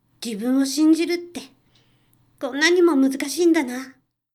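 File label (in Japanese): 自分を信じるってこんなにも難しいんだな, 女性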